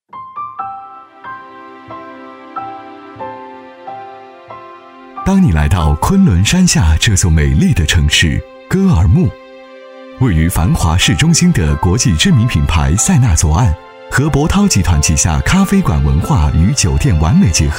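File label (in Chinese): 男 广告（讲述 温柔）; 咖啡; 左岸咖啡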